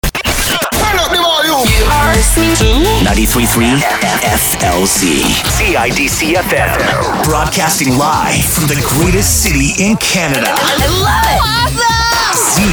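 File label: radio contemporary hit radio; radio imaging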